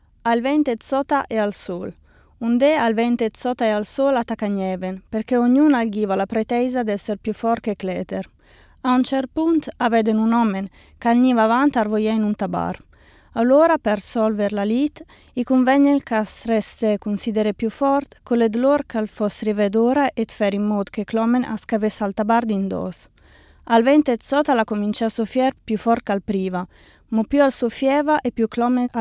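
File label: Italian dialect, female, Castelnuovo di Sotto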